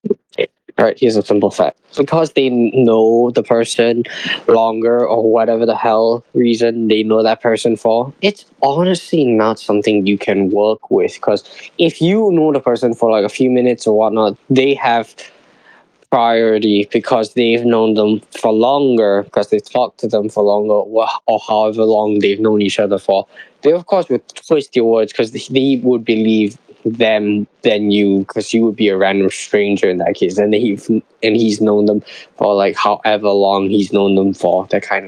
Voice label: voice message